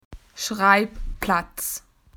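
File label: "writing corner", Australian English; PictureDictionary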